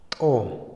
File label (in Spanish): piojo, Otomí, hombre; INALI